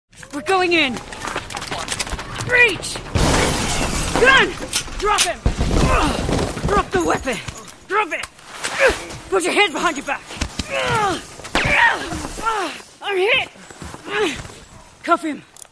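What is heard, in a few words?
videogame swat female police australian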